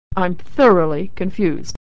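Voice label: thorough, American English; Consonant Sound; I'm thoroughly confused.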